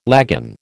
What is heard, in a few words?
"lagan", American